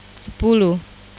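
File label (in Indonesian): "sepuluh", Indonesian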